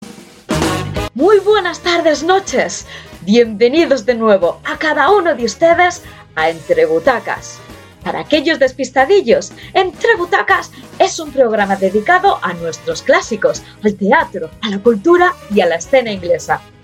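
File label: European Spanish, Radio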